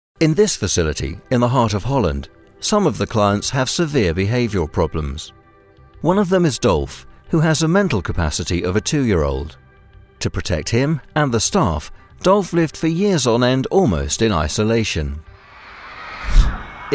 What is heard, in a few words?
Documentary, voiceovers